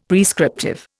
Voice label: "prescriptive", Indian; Dictionary